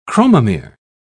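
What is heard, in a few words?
"chromomere", British English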